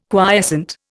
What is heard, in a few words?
"quiescent", Indian